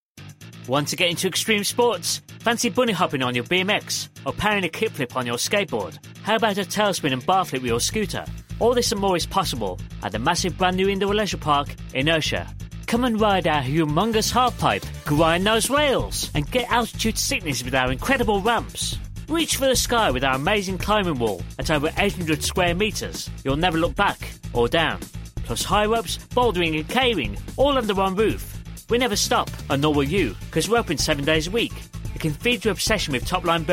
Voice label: commercial